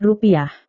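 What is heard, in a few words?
"rupiah", Indonesian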